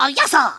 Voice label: "yes sir", Kamikaze; Worms Armageddon